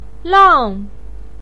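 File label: "lang" fourth tone, chinese, voice pinyin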